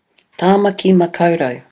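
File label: "tamaki makau rau", Māori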